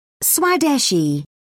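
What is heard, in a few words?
"swadeshi", British English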